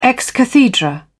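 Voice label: "ex cathedra", British English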